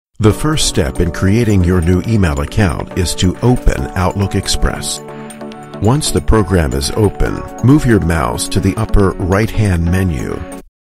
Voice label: fast e-learning

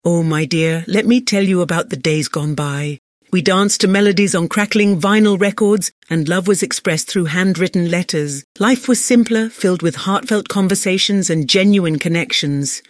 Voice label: Old Female

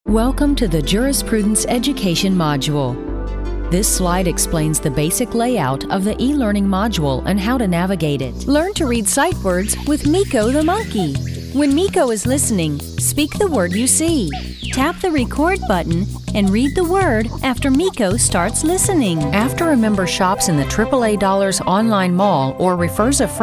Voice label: ELearning

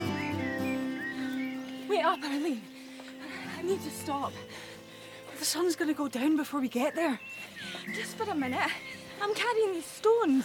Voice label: Radio Drama Extract; The Cairn; BBC Radio 4

